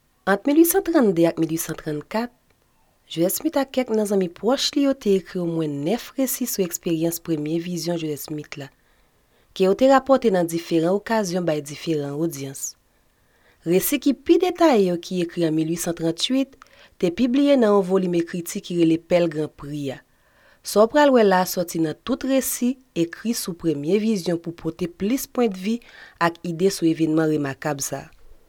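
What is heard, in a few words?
Haitian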